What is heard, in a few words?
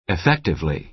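"effectively", American English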